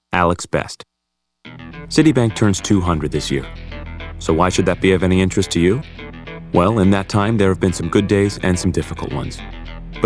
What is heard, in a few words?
commercial